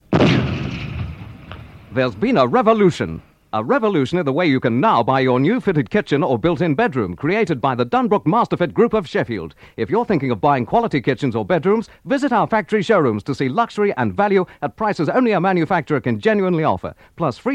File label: Radio Advert; Radio Hallam; Dunbrook Masterfit Revolution